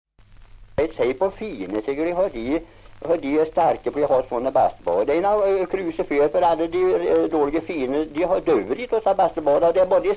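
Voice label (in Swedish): Järnskog Värmland, dialekter, svenska sagor; Folkminnen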